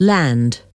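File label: "land", English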